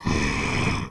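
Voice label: voice unbalance; Goliath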